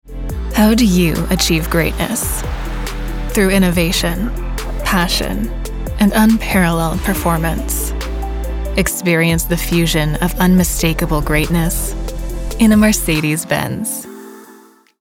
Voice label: Television Spots, Conversational, Millennial, Friendly Female Voice; Mercedes-Benz